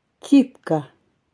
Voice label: "Типка", эвенский; Тайга

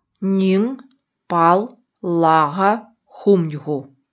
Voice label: НИВСКИЙ Мы живем рядом с тайгой; Тайга; Ныынь пал лага хумдьгу.

